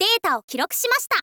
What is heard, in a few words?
info girl